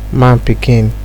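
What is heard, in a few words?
"man pikin", Nigerian Pidgin, male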